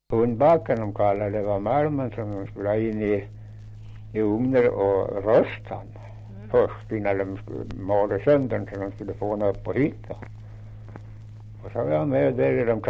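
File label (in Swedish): Kopparberg Västmanland, dialekter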